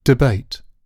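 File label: "debate", British English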